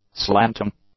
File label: "slanting", English; adjectives